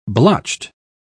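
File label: "blotched", British English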